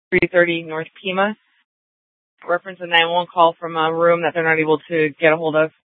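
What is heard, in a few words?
Scottsdale PD North Dispatch; Nth Dispatch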